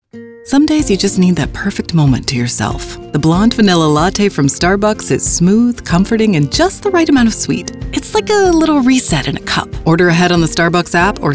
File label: Commercial, Female Voice Over